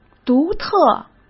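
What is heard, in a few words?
"独特", Mandarin Chinese; hsk; chinese-flashcards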